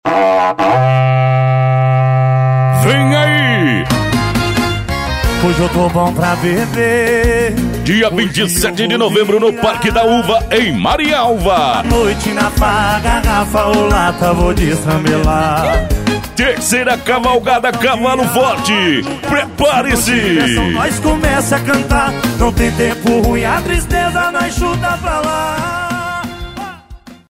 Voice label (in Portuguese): LOCUTOR